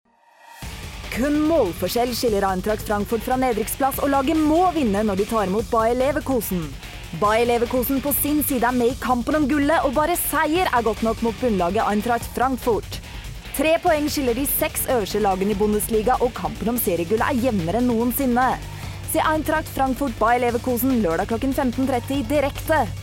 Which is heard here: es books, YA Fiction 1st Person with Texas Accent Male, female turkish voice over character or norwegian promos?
norwegian promos